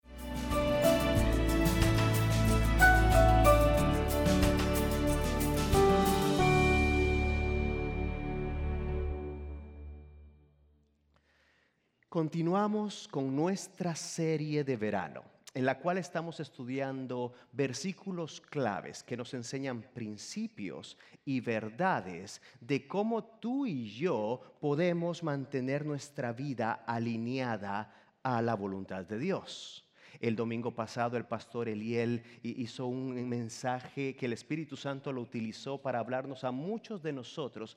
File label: SERMON SPA AUDIO